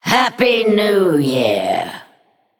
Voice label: Female Naga Happy New Year Lunar

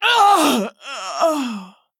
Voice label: Female Tortollan Death

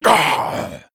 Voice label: Male Dracthyr Death

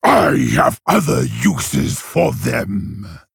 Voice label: Male Orc; Blademaster; Uther; Mission 5 Intro

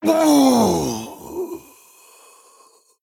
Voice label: Male Troll Death; Duels Minion Tony Two Tusk

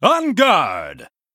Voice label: Male Human Attack

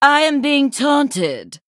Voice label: Female Sanlayn Emote ERROR TAUNT

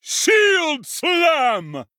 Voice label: Male Shield Attack

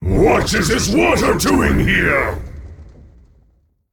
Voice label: Male Elemental

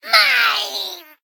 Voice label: Female Sprite Death

